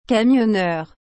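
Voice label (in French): "camionneur", French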